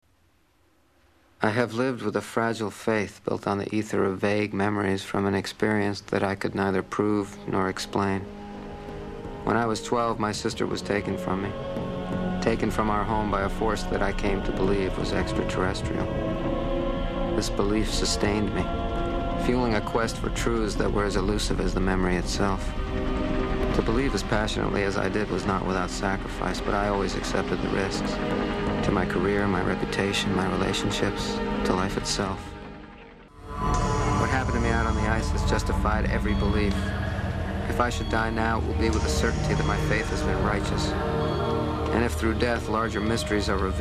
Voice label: teaser monologue